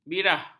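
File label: "birah", Malay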